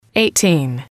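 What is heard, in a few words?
"eighteen", English, female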